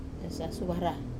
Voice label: "sasu vera", shirt, wamesa

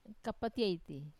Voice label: "kapatieiti", Wamesa; house lizard

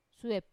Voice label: "suep", cave, wamesa

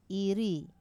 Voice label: "ri", to dance, wamesa

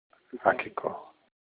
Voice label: cock, Olukumi

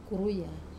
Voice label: "kuruya", wamesa; thunder

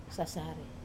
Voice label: "sasari", tomorrow, wamesa

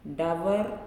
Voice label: "Rain", Nalik

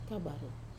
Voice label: "kavaru", bean, wamesa

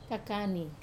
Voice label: "kakani", eagle, wamesa

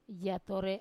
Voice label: "yatore", wamesa; I count

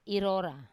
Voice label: "irora", I hit, wamesa

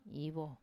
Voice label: "ivo", wamesa; I paddle

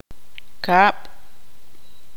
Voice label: "abeja", tzutujil

